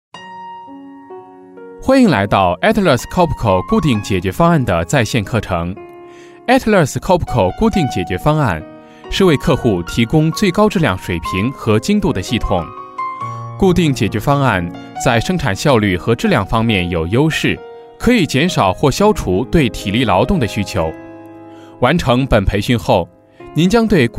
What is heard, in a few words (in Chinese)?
男 中英混录 培训课件 正式